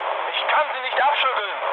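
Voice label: German aircraft damaged mood low, speech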